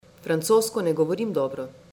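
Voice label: I Dont Speak French Very Well, Slovenian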